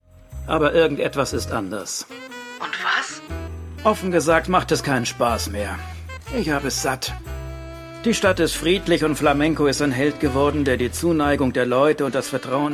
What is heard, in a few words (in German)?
cooler typ am telefon synchronaufnahme